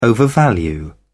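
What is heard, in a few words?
"overvalue", English, British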